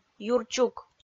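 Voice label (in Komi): "юр чук" Komi, female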